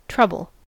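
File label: "trouble", American English; pronunciations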